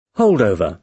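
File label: "holdover", British English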